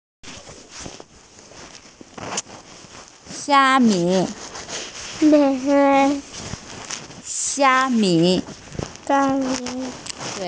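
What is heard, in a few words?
"xia1mi5", Mandarin Chinese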